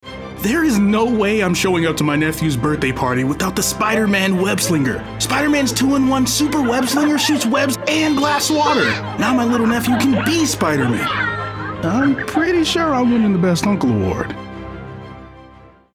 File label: Improv - Explainer, African- American Male Professional Voiceover; confident, trustworthy, and authoritative tone, as sincere as the guy next; Spiderman Web Slinger